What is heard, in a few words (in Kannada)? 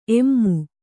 "ಏಮ್", kannada